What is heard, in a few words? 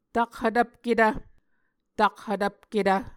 "takhvtvpketv", Muscogee